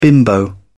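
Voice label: "bimbo", English, uk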